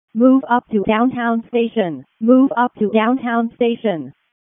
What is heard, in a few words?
EMS ALERT, p25